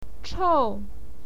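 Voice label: "chou", Mandarin Chinese, fourth tone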